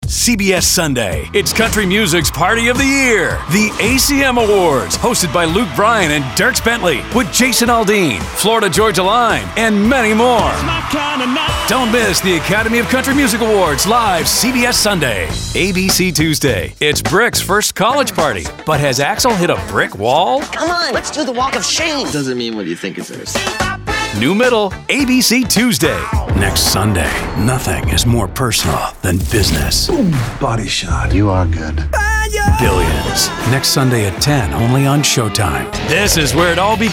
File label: men promos; Friendly, inquisitive, conversational, fun, Guy-Next-Door.